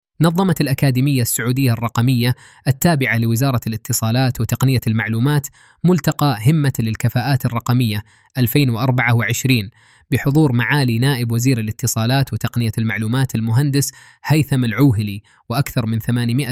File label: arabic